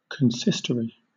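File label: "consistory", British English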